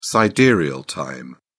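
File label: "sidereal time", British English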